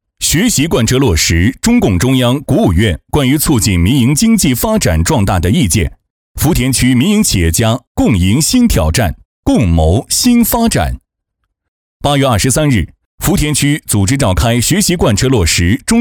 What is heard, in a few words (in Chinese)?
男 新闻